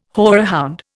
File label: "horehound", Indian